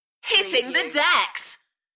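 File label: British female Hittin the decks Enthusiastic; beanstalk audio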